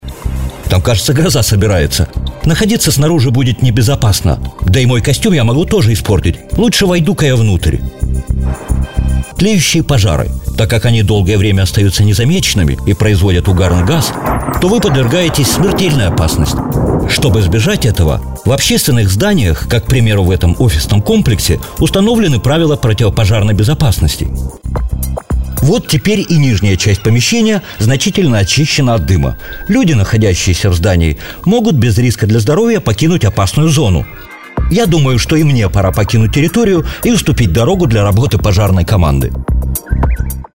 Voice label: rus stereo